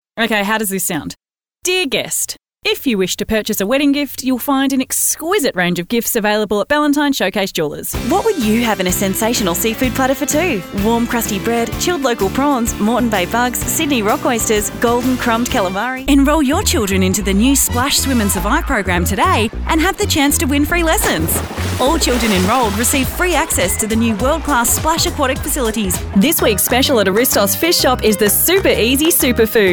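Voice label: female retail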